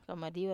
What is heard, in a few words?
"kamadiwa", Wamesa